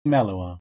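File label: "melh1uo", Proto-Indo-European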